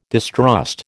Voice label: "distrust", American